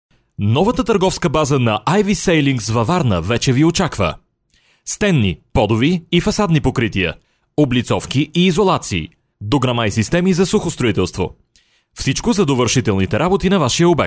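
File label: Bulgarian, male, e-learning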